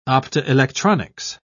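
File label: "optoelectronics", British English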